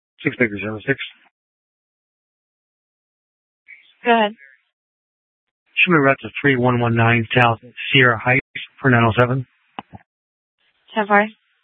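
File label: Mesa Police Department Superstition Dispatch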